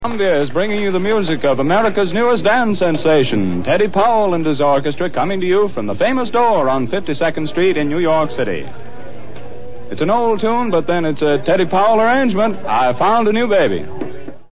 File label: CBS announcer